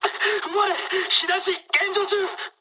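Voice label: on fire mood med, speech japanese; War Thunder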